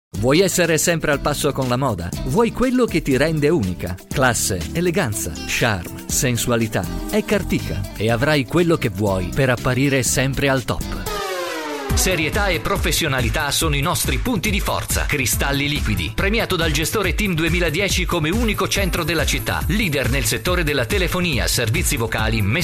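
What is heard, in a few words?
Italian male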